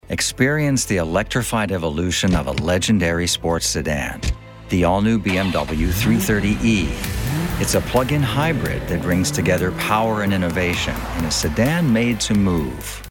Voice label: Commercial; BMW